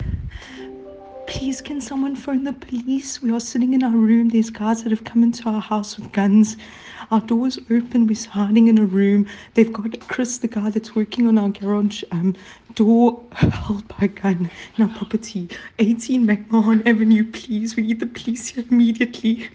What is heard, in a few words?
Voice note during robbery